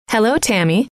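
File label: hello, female; trainer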